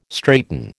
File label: "straighten", American